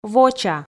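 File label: "воча", Komi, female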